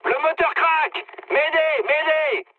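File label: damaged engine, mood high, French; War Thunder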